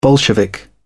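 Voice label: "bolshevik", British English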